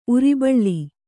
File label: "ಉರ್ಬಳಿ", Kannada